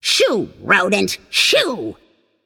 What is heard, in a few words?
taunt directed; danzaburou; Smite; babayaga